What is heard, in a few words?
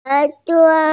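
"ěr duo", Mandarin Chinese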